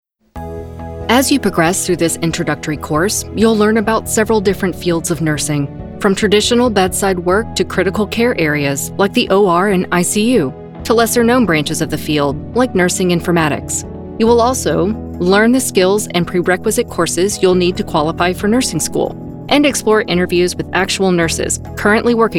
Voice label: Medical E LEARNING